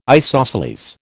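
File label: "isosceles", American English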